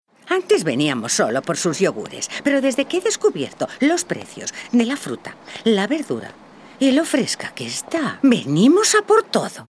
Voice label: female spanish market comercial; ideasonora